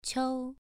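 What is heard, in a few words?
"qiu", tone 2, pronunciation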